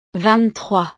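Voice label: "vingt trois", French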